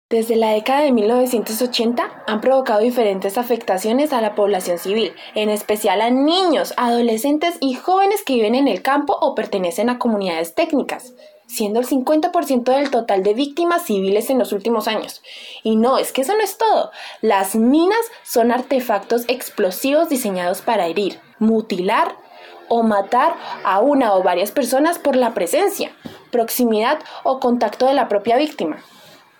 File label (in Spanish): Narradora; casting trineo tv